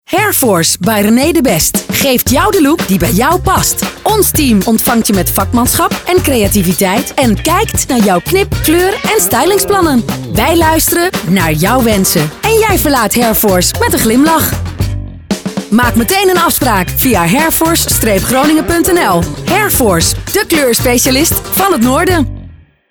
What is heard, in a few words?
Commercial; Hairforce; Media Atelier